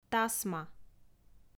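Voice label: "тасма", Komi, female; audio dict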